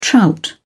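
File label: "trout", British English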